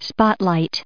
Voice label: "spotlight" noun

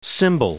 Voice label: "symbol", English

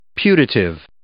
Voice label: "putative", English

